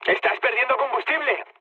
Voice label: tank damaged, mood med, speech; War Thunder